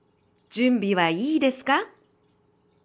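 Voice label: "junnbi ha ii desuka", Japanese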